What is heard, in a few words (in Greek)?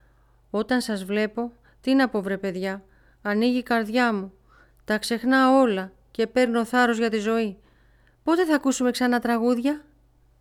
Ηλικιωμένος 3ης Ηλικίας